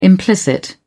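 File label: "implicit", British English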